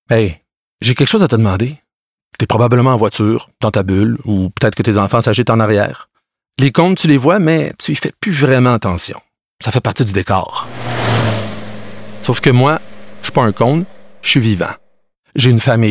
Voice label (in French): homme mixradio